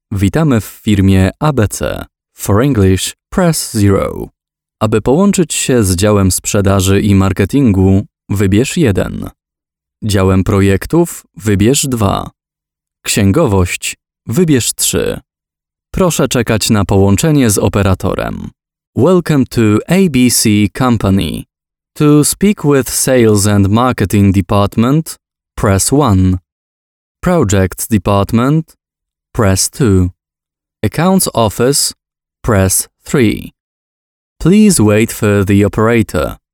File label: IVR